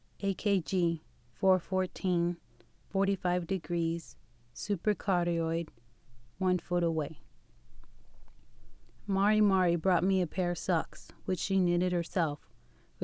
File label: AKG Super Cardiod 1ft; AKG 414